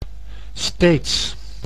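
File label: "steeds", Dutch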